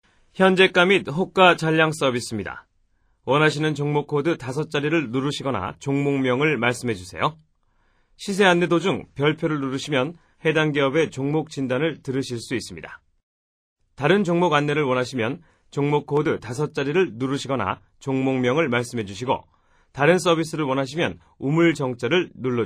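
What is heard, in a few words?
Korean, male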